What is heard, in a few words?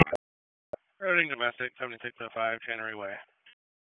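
Raleigh Police Northeast District Dispatch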